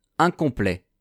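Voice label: "incomplet", French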